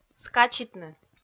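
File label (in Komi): "скачитны", Komi, female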